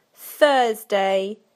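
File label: "Thursday", British English; PictureDictionary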